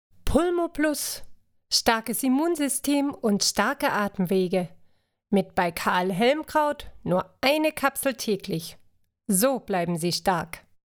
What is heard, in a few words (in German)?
Sample Werbung Atemmittel